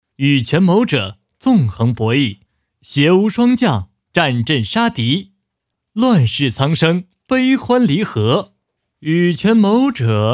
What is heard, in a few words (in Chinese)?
角色音 多风格